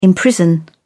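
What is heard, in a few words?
"imprison", British English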